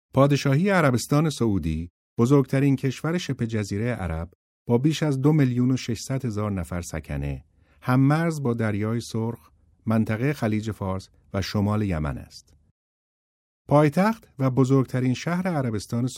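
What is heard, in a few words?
Farsi, Iran, male, voice over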